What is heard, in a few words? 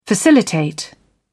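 "facilitate", British English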